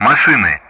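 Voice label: Speech Sound Russian; cars; Target; DCS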